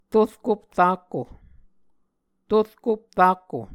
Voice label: "torkop rakko", Muscogee